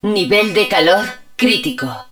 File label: N HUD Heat Level Critical, Spanish